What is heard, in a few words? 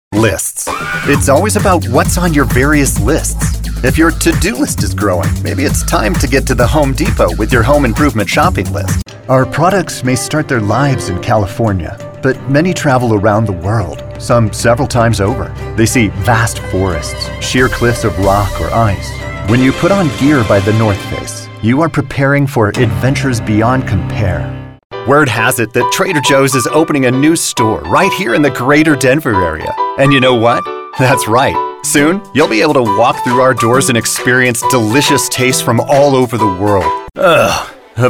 commercial